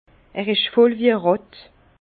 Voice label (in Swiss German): "ratt", Bas Rhin; vin